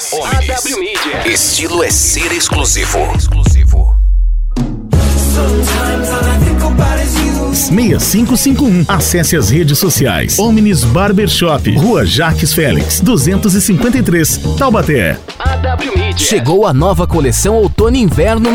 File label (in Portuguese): radio indoor barbearia; AW Midias